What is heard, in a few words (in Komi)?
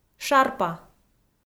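"шарпа", Komi, female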